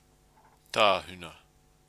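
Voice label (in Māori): "tahuna", Māori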